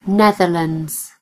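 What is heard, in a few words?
"netherland", British English; gcompris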